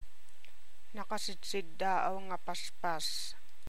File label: Ilocano